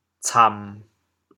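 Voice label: "cam1", Teochew, chaoyang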